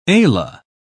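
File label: "ala", British English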